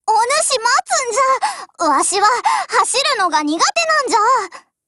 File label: HELLO JP; GF Alarm; M1895